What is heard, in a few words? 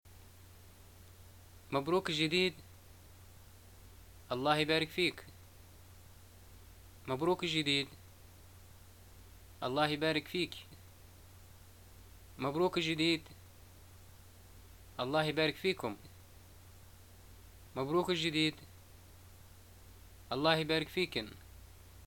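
"greeting new things", Libyan Arabic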